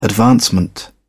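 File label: "advancement", British English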